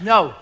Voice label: "no" stern; Voice; expletives

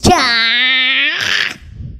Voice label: "cheeeyah", cartoon; ooh ahh

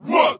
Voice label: wah group of men; ooh ahh

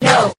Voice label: "yo", voice

